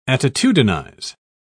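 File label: "attitudinize", English, British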